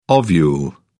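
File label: "ovule", British English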